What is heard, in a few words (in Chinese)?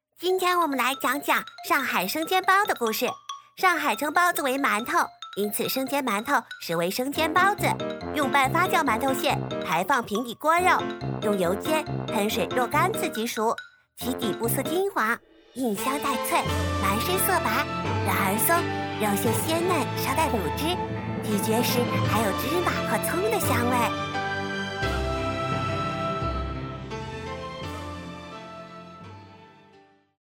动画童音; mg动画（童音）